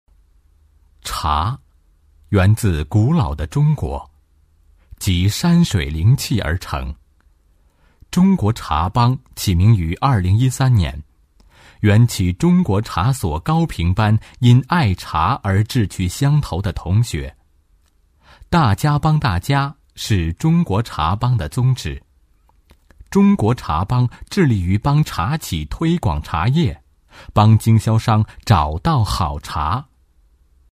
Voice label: 男 茶酒 宣传, 配音案例; 茗苑茶业有限公司

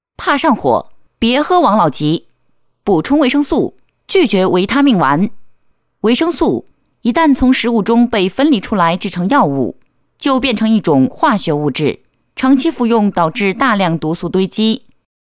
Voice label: 女 品牌宣传 宣传对话配音; 清火祛痘茶宣传对话配音

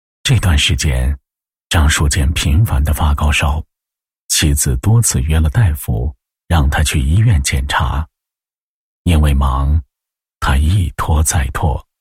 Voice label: 男 人物; 监管执法工作

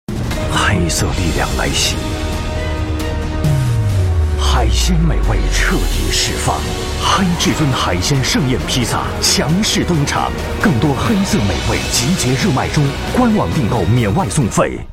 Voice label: 广告 海鲜盛宴比萨, 国语男声配音; 大师级录音

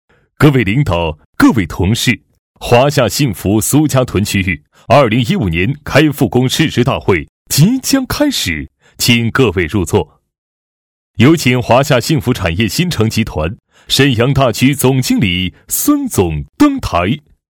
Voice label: 开幕词 大气亲切 签约现场声, 国语男声配音; 大气专题

